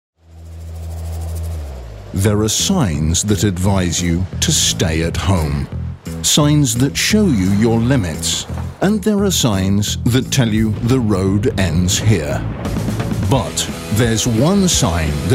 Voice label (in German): Eng UK Dynamisch